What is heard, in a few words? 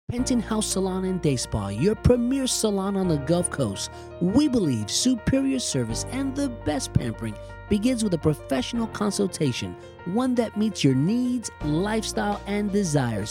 Commercial